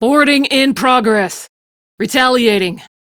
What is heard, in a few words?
Crew Enemy Spot, Female; Dialog; Warframe; Railjack Crew; Steel Meridian